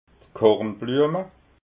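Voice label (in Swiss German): "kornbluema", Alsatian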